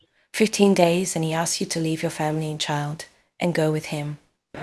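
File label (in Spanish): Voice Acting, Spanish, female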